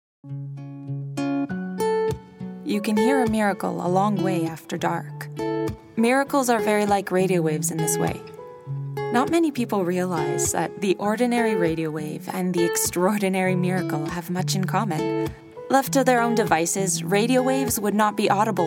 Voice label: english canadian narration